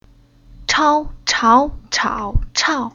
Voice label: "Chao", Chinese; Уроки фонетического блока

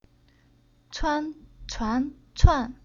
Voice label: "Cuan", Chinese

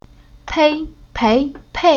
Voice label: "Pei", Chinese; Уроки фонетического блока

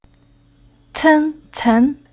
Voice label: "Cen", Chinese

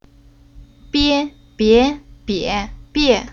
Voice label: "Bie", Chinese; Уроки фонетического блока

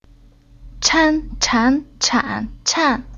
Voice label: "Chan", Chinese; Уроки фонетического блока

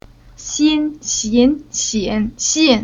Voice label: "Xin", Chinese

